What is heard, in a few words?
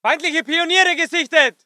voice ger infantry